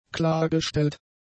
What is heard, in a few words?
"klargestellt", German; adjectives